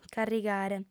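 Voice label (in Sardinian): "carrigare", female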